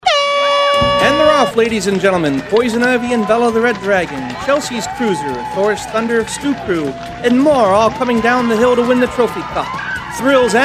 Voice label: Radio Ad; Montague Soapbox Races